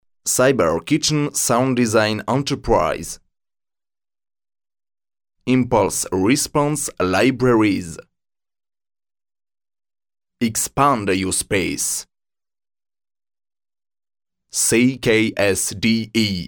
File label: voice dry